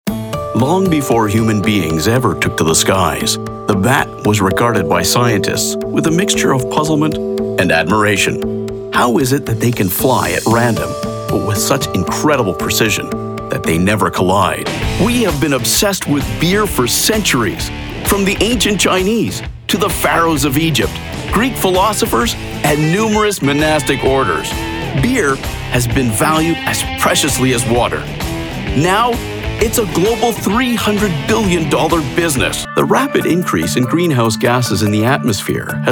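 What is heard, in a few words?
Narration, voice over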